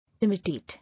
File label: "Imitate", English